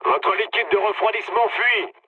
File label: damaged water mood low, speech fr; War Thunder